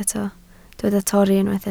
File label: "torri", Welsh